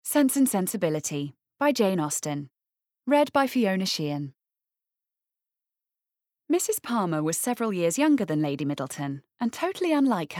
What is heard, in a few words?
Bookread; SenseAndSensibility